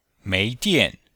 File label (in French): "mei2dian4", chinois; Cours de Chinois